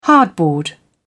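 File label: "hardboard", British English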